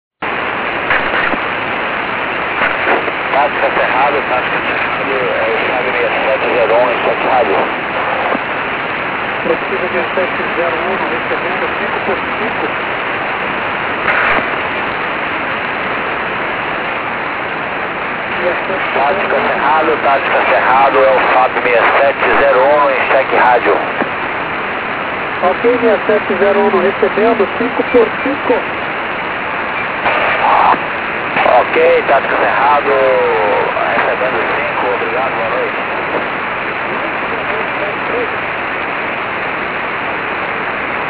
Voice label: ALE USB VOICE; 9010kHz; FAB6701

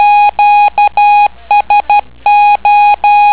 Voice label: qso

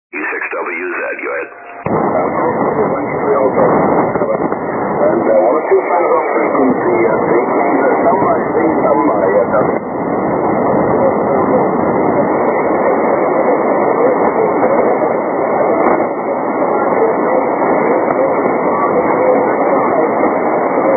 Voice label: 80m, SSB short path; FT-1000d

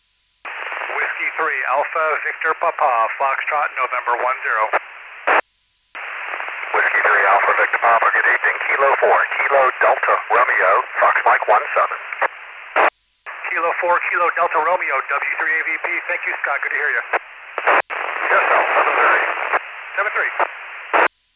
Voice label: iss voice repeater

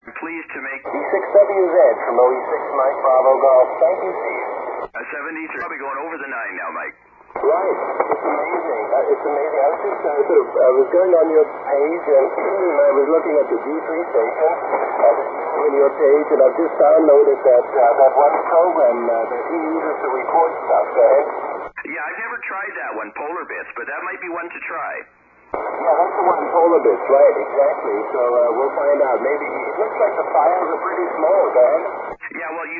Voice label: 80 ssb; 80m DXCC Entities worked from 2002-2008

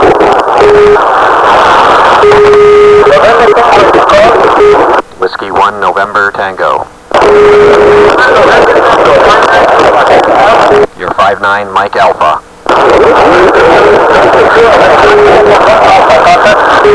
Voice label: croatia 160m ssb; 2009 arrl ssb

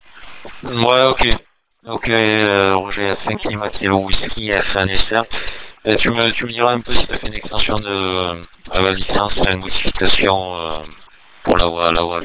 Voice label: dstar mbelib

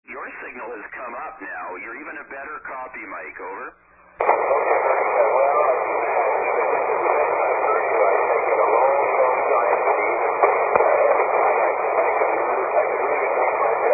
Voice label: 80m ssb

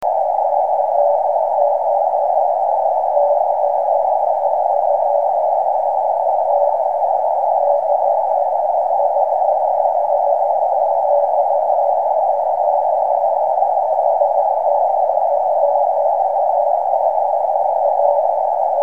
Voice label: calling CQ EME

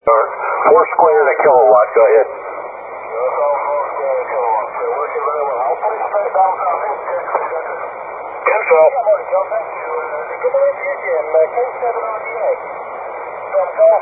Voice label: 80 ssb; SSB-SP

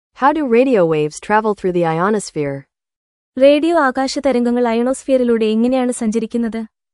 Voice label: Malayalam

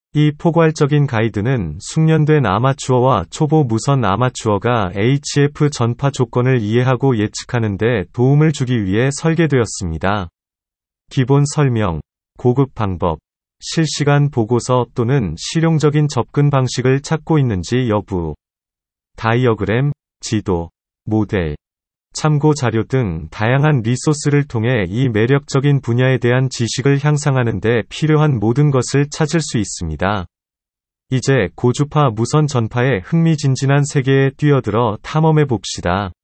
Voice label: Korean

